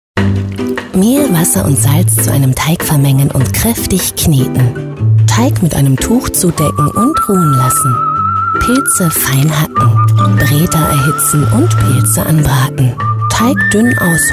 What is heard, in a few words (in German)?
TV-Spot; Annas Best